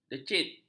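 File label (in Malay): "decit", Malay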